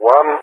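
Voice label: "1", English speech; Digits; Allied Flight; DCS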